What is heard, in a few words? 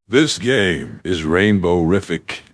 happy; fertig classic games